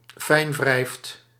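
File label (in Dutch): "fijnwrijft", Dutch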